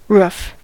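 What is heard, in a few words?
"roof", American English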